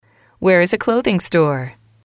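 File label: "where is a clothing store", English, female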